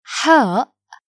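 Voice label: "hha", Arabic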